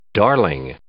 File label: "darling", English